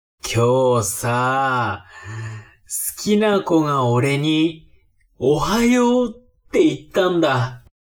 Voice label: man